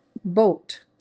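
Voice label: "boat", English; useful words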